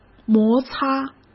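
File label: "摩擦", Mandarin Chinese; hsk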